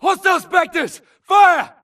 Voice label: grunt engaging Spectre; imc; Titanfall 2